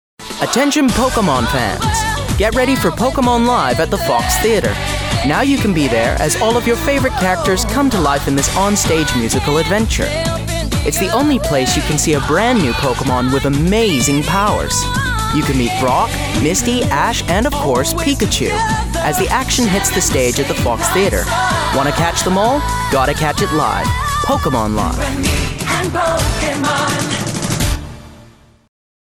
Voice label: Advert; Pokemon

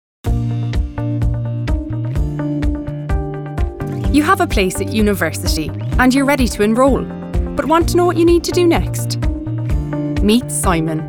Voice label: Narrative Reel

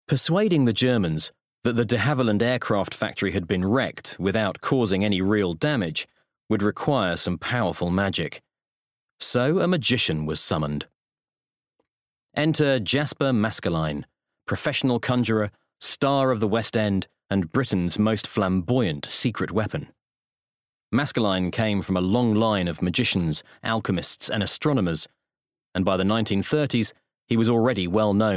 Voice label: English Bookread; Agent Zig Zag

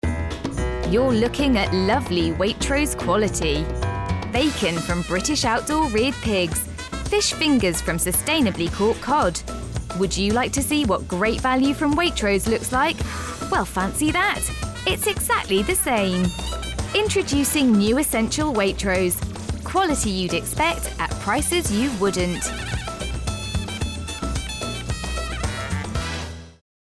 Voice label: Commercial; Waitrose

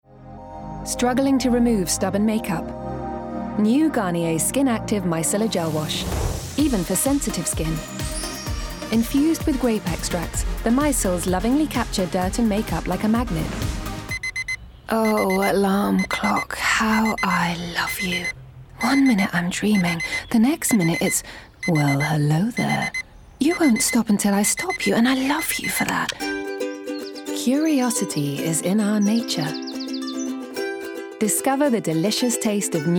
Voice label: Commercials Montage; 30/40's RP/Neutral, Soft/Relaxed/Versatile

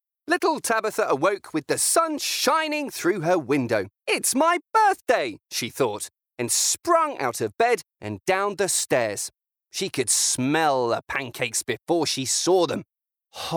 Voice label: Audiobook Kids

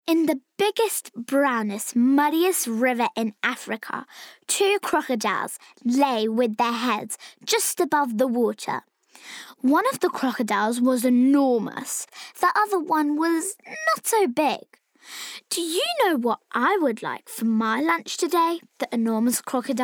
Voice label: Bookread; The Enormous Crocodile

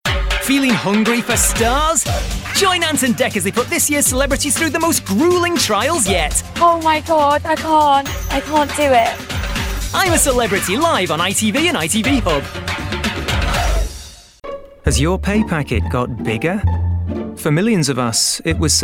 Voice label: Promo Showreel

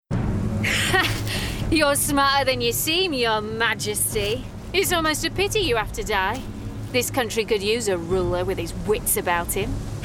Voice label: Gaming Assassin Northern

